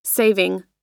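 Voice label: "saving", American English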